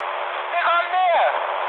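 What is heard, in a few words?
German near waypoint mood high, speech; War Thunder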